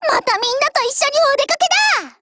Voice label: OPERATION BEGIN JP; MP446